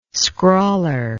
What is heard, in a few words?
"scrawler", English